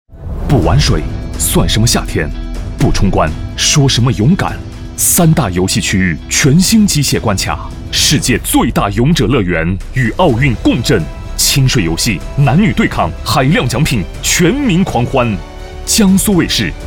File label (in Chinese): 男,电视旁白 节目; 勇者冲关节目电视